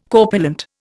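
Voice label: "corpulent", Indian English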